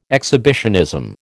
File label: "exhibitionism", American